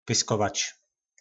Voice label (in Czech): "Pyskovač", nářečí Po našimu; Odmlouvat; Něpyskuj fórt.